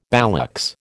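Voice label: "ballocks", American English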